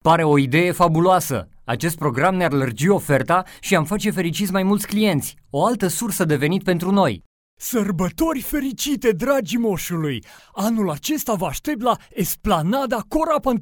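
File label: Romanian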